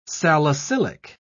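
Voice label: "salicylic", British English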